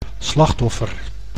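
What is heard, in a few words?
"slachtoffer", Dutch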